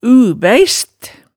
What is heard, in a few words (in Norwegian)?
"u-beist", hemsedalsmaalet tale